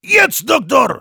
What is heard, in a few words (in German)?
Heavy activate charge, de; "Jetzt, Doktor!"